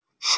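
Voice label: "banana", Mandarin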